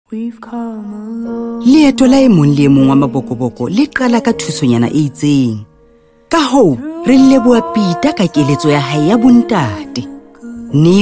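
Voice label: Sesotho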